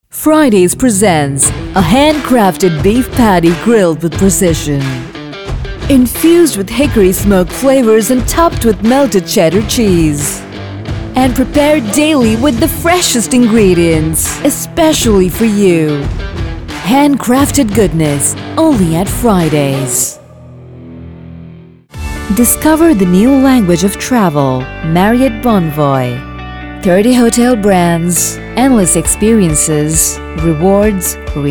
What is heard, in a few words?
American English; Commercial Demo